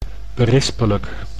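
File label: "berispelijk", Dutch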